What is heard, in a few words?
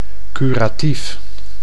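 "curatief", Dutch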